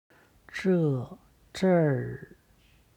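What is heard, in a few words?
"zher", Chinese; 这（这儿）; zhè/zhèr; これ; HSK Level One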